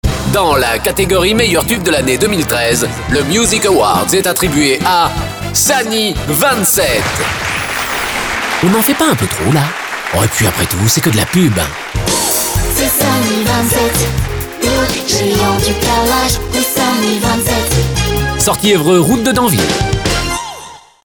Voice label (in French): radio pub spot